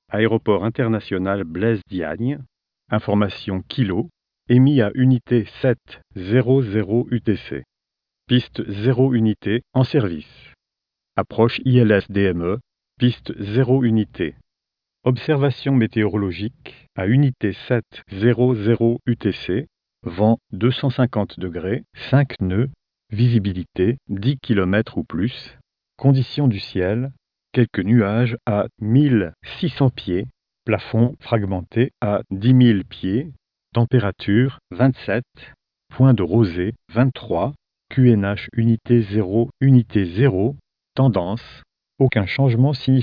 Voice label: atis french; starcaster